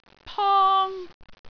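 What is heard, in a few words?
"pong1", Cantonese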